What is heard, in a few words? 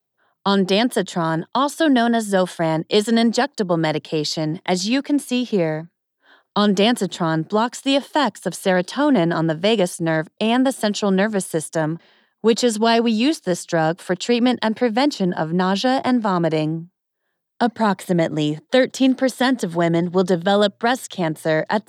Medical Elearning; After RX